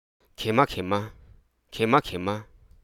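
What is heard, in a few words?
Hruso Aka; casual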